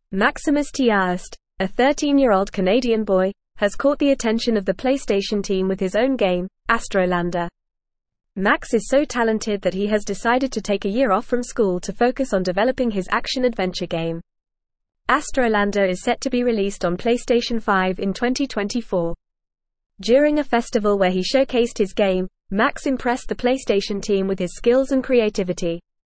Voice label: English Newsroom Advanced FAST Reading; 13 Year Old Canadian Boy Develops PlayStation 5 Game

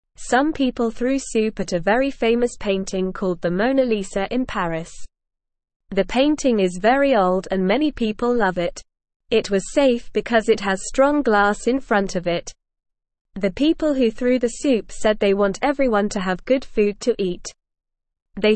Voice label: English Beginner NORMAL Reading; English Newsroom; Soup Thrown at Famous Painting in Paris Sparks Talk

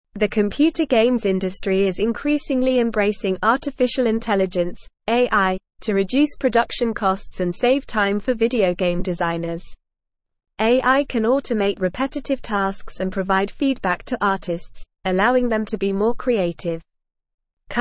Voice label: English Advanced NORMAL Reading; AI Revolutionizing Computer Games Cost Reduction Creativity

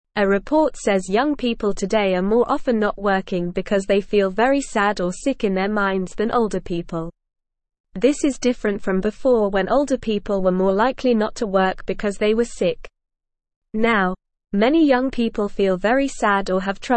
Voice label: English Beginner NORMAL Reading; English Newsroom; Young People Feeling Sad and Not Working

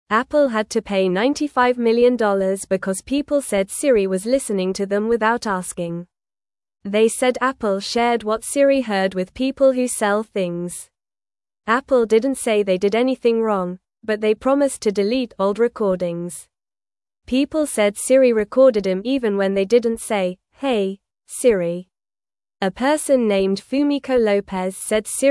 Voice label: English Beginner NORMAL Reading; English Newsroom; Apple Pays for Listening to Siri Without Asking

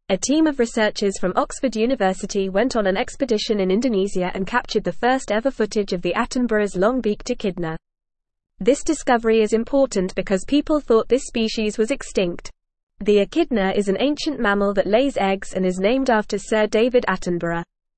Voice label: English Upper Intermediate FAST Reading; Rediscovery of Attenboroughs Long Beaked Echidna Sp; English Newsroom

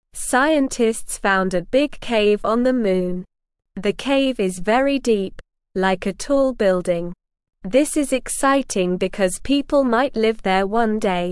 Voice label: English Newsroom Beginner SLOW Reading; Big Cave Found on the Moon for Future Living

